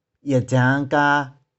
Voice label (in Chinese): "ih4jiang1ka3", 玉環